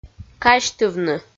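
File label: "казьтывны" Komi, female; kpv audio dict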